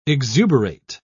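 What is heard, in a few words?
"exuberate", British English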